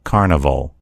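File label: "carnival", American English; gcompris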